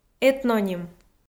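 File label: "этноним", Komi, female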